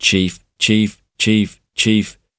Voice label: gibbrish, voice; chief chief chief chief